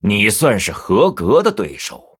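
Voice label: Male, Chinese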